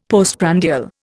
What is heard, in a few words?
"postprandial", Indian; Dictionary